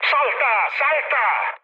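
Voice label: Italian bailout mood high, speech; War Thunder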